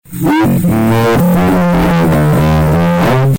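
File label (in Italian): "eh si mother", Italian; Eh si, mother, diradà, ah mi annoi…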